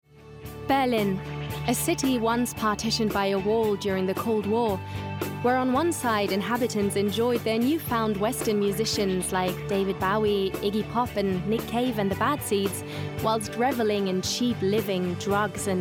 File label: english documentaries